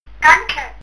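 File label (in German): "danke", German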